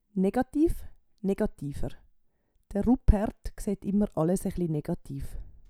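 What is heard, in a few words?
"negativ", Swiss German vocabulary; Wortschatz Kapitel 8; Kapitel 8 Zusatzwortschatz 4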